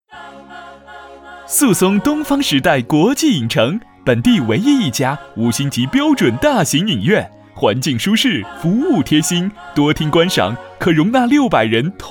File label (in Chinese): 男 广告; 宿松东方时代国际影城